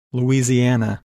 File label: "Lousiana", English Pronunciation